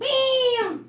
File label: "bam", Voice